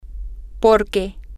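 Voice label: Spanish, female; because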